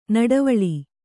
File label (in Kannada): "ನಡುವಳಿ", kannada